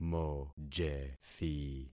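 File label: "mojethi", concatenated syllables; Affixing experiment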